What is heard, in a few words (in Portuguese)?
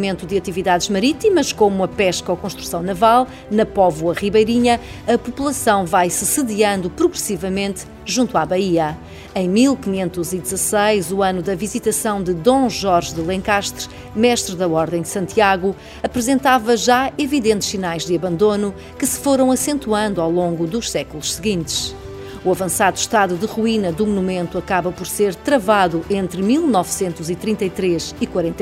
Audio Guia Castelo Sesimbra